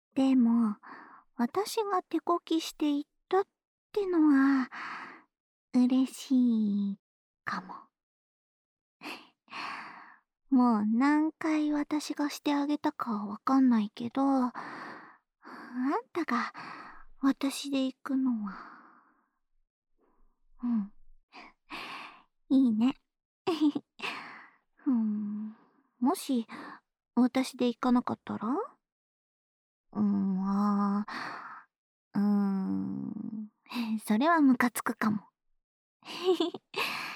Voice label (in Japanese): ほほえみながらあなたにキスして耳元で囁き（右耳）